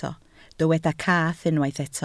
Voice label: "cath", Welsh

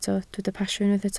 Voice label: "pasio", Welsh

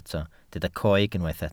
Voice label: "coeg", Welsh

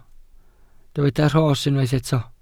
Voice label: "rhos", welsh

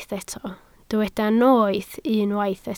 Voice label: "noeth", Welsh

